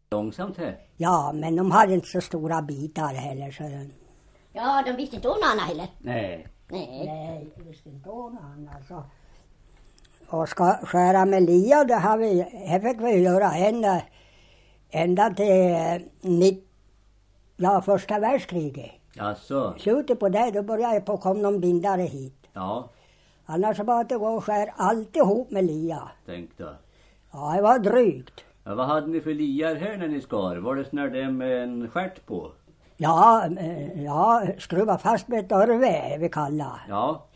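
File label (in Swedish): Möklinta Västmanland, Svenska sagor, dialekter; Folkminnen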